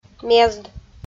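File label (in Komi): "мезд", Komi-Zyrian, female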